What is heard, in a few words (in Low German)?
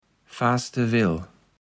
"faaste Will", kaevels platt